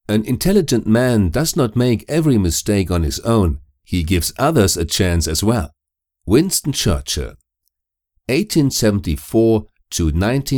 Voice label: English NonFiction